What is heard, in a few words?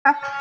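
"qie1", Mandarin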